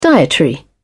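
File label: "dietary", British English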